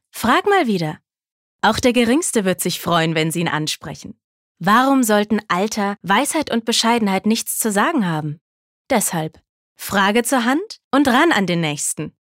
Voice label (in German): Werbung Dynamisch